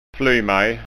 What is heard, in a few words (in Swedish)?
"flymej", skanskaord